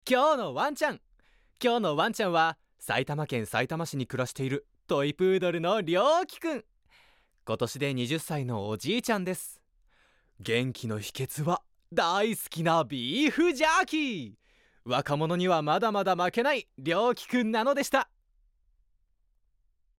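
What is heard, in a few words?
voice sample, narration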